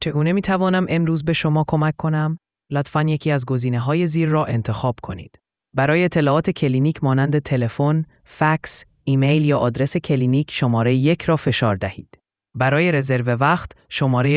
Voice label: question persian